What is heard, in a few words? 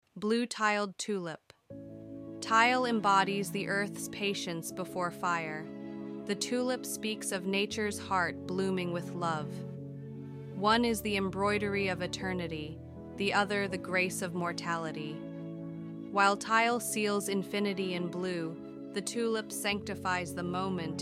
ENG music; Blue Tiled Tulip; art collection